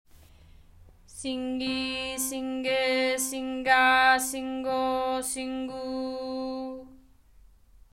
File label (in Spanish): Formantes vocales boca de asco Sing i e a o u